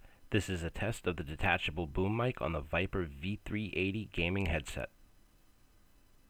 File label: Viper V380 Mic Test, gaming headset; Patriot Viper V380 RGB